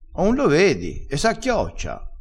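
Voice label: "acchiocciassi", Italian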